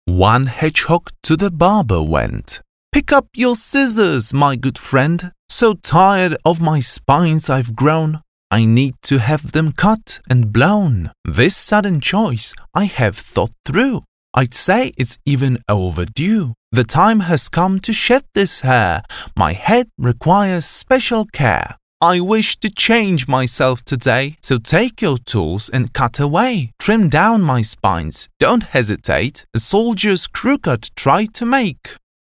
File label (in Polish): Bajka ang